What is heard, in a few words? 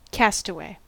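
"castaway", US English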